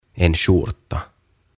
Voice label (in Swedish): "En Skjorta" "Paita"